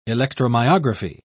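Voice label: "electromyography", British English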